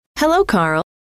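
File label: "hello", female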